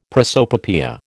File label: "prosopopoeia", American